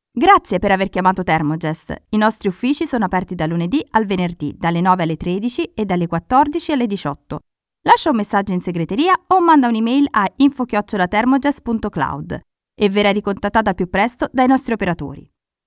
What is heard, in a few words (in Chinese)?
意大利语女 外语 小语种